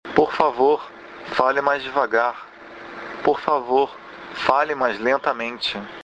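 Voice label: speak more slowly, Brazilian Portuguese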